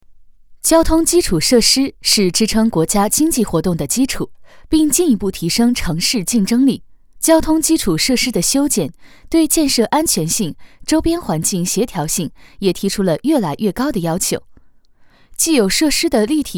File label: Mandarin Voice Female; Decibel